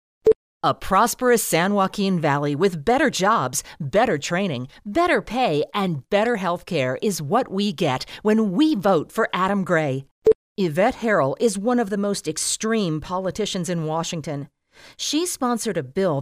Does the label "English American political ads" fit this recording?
Yes